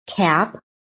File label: "CAP", american